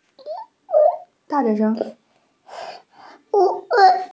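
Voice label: "zhi3 hui1", Mandarin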